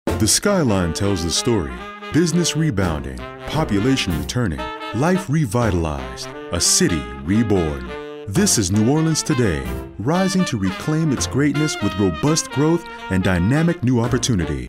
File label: informative